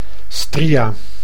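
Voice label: "stria", Dutch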